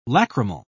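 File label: "lachrymal", British English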